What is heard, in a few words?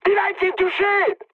aircraft destroyed mood high, speech fr